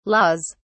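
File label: "laws", British English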